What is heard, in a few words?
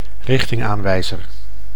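"richtingaanwijzer", Dutch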